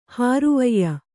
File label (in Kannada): "ಹಾರುವಯ್ಯ", Kannada